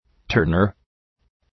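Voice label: "turner", English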